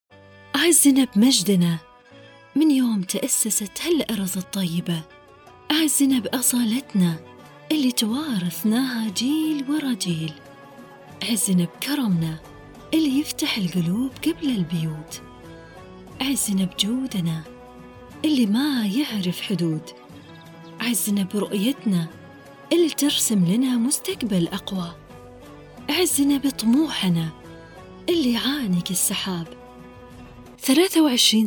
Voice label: voiceover